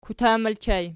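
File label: "kutan", ergative plural, Archi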